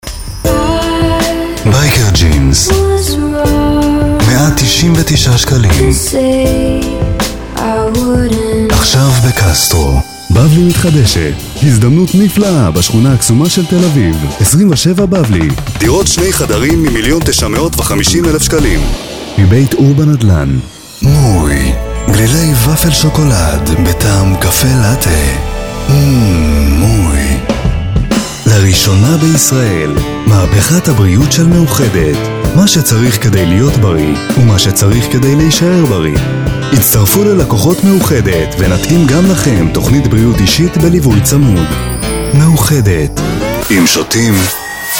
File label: Hebrew